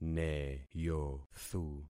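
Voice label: "neyothu", concatenated syllables; Affixing experiment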